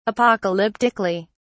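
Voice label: "apocalyptically", British English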